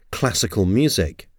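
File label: "classical music", English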